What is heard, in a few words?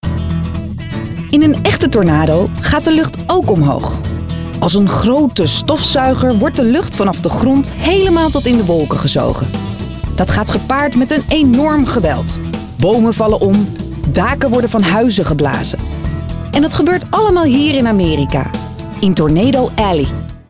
voice over